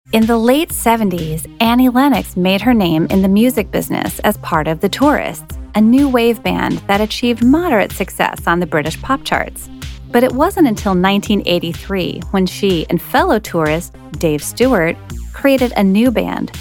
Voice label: 30s Female Narration

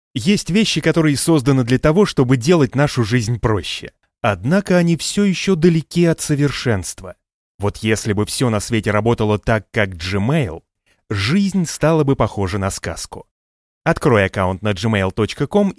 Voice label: 40s Male Russian Video VO

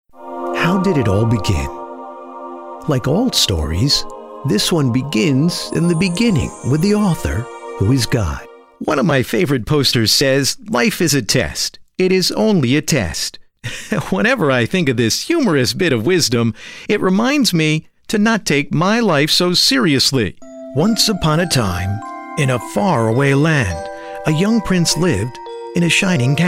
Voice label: 30s40s Male Narration

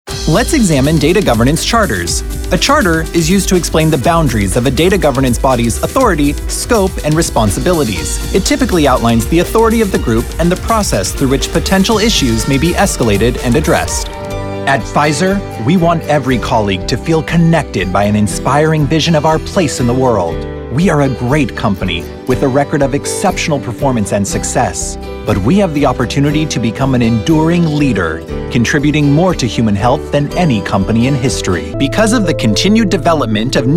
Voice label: 30s Male Narration

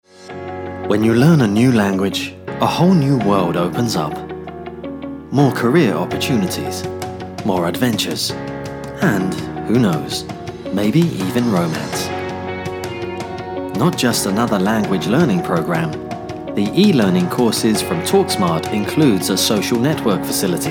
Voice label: 30s40s Male British Narration

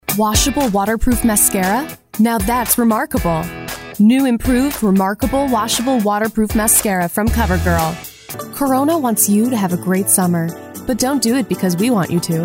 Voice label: 20s30s Female Commercial

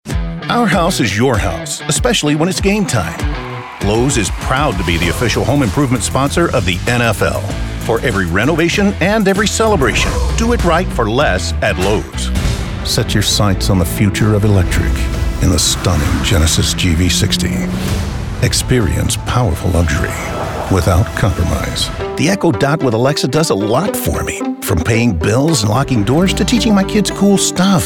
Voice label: 50s Male Commercials

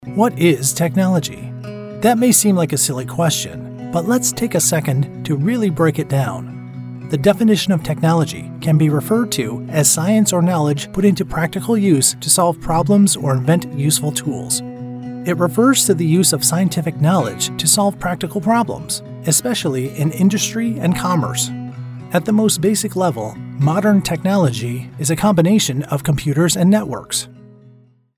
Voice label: 40s Male eLearning

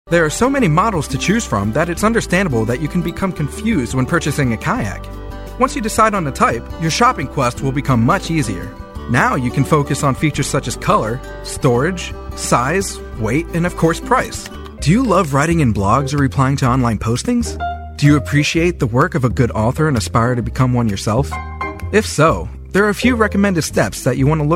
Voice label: 20s Male Narration

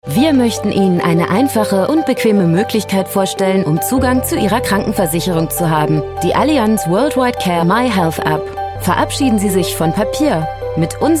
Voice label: 30s40s Female German Narration

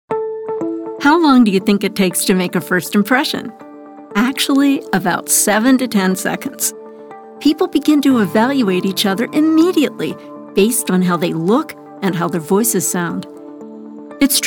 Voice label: 40s50s Female Narration

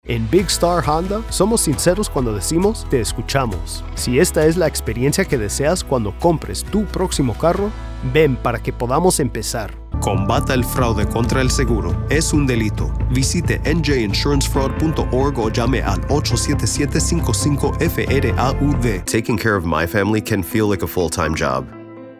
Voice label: 30s Male Hispanic Bilingual Commercial; Spanish / Bilingual

